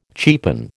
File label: "cheapen", American